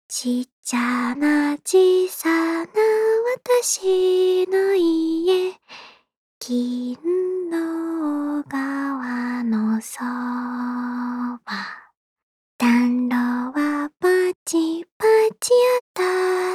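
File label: voice Japanese idle; Fina; Feina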